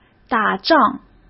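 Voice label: "打仗", Mandarin Chinese; hsk; chinese-flashcards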